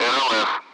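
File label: "radar", Russian; Allied Flight; DCS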